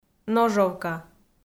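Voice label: "ножовка", Komi, female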